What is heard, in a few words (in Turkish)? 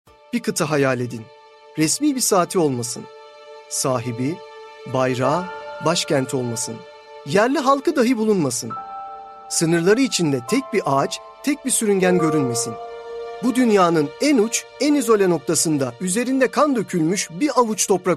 belgesel